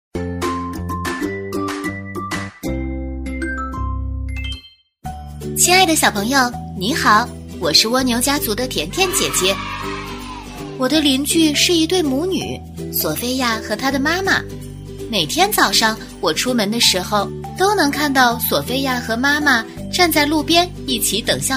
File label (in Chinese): 女 绘本故事; 在月亮上爱你的索菲亚; 可爱